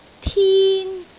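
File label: "tin1", Cantonese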